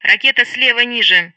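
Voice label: Speech Sound Russian Common Messages; Missile 9 O'Clock Low; Betty; DCS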